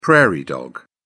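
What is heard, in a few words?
"prairie dog", English, British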